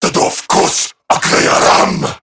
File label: Attack, Male; Warframe; Corrupted Grineer Male; Dialog Barks Battle